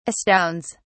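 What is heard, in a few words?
"astounds", British English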